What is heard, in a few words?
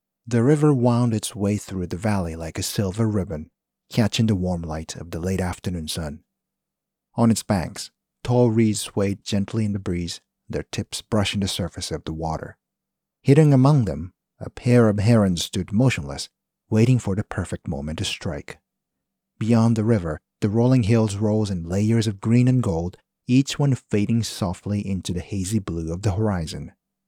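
Japanese, male, English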